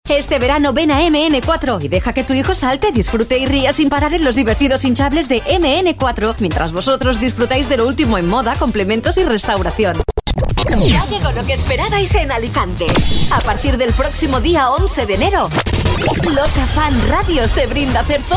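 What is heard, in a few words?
female Castilian Spanish voice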